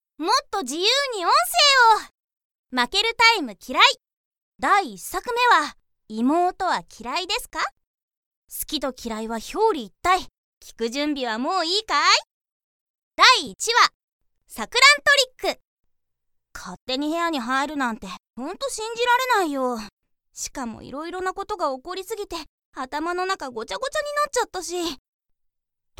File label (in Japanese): CM（BGMなし）; ドージンボイスワーク